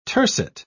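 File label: "tercet", British English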